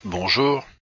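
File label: "bonjour", French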